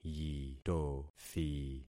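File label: "yitothi", concatenated syllables; Affixing experiment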